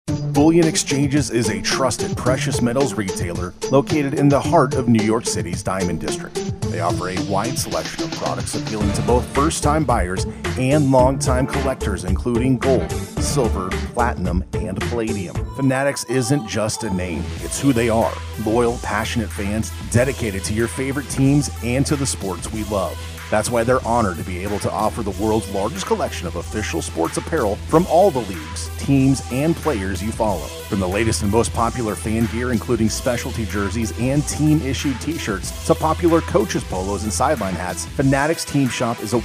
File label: commercial